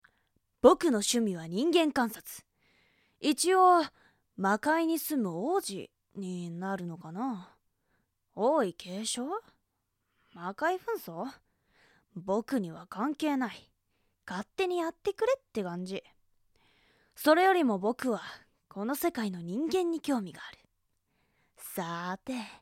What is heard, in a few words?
boy; 少年